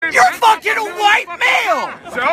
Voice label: White Male